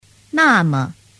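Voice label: "name", Mandarin Chinese; thanh nhe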